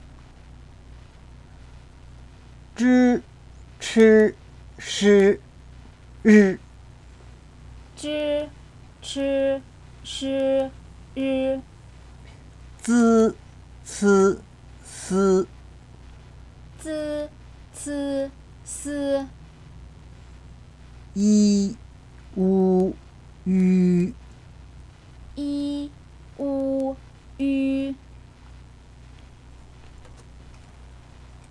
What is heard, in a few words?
pinyin, chinese